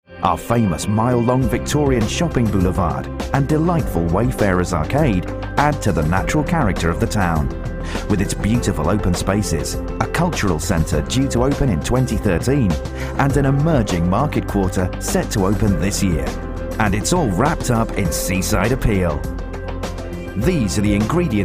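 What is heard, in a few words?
British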